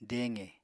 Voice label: "deqe", Apatani